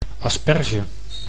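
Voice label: "asperge", Dutch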